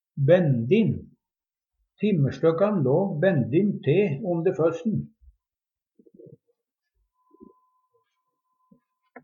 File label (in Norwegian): "bendin", Numedalsmål; Adjektiv